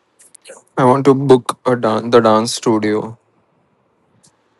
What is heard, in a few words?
voice message